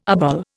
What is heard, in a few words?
"obol", Indian